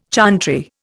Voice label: "chantry", Indian

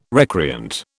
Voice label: "recreant", British

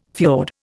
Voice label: "fiord", Indian

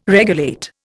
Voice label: "regulate", Indian

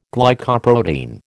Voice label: "Glycoprotein", American

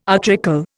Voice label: "utricle", Indian

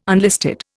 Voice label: "unlisted", Indian

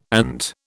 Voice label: "ant", British

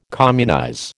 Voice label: "communize", American

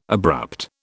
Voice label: "abrupt", British

